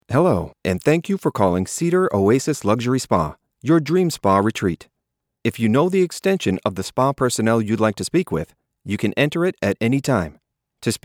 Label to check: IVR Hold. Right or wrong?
Right